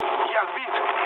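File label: Russian aircraft destroyed mood low, speech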